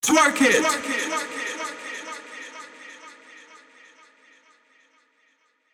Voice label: "Twerk It", EDM Future Vocals; Big EDM; Future House Tools